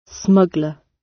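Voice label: "smuggler", British; Crime and punishment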